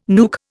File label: "nuke", Indian